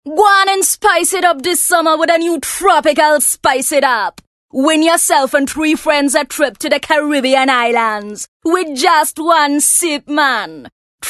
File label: Conversatinal Caribean, voice artist